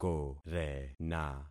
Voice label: "korena", concat syllables; Affixing experiment

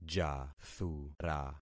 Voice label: "jathura", concat syllables; Affixing experiment

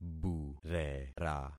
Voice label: "burera", concat syllables; Affixing experiment

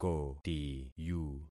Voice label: "kotiyu", concat syllables; Affixing experiment

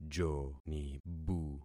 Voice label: "jonibu", concat syllables; Affixing experiment

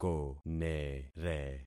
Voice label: "konere", concat syllables; Affixing experiment

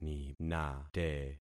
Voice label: "ninate", concat syllables; Affixing experiment

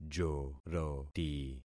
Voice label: "joroti", concat syllables; Affixing experiment

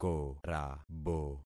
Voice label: "korabo", concat syllables; Affixing experiment

